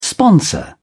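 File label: "sponsor", British English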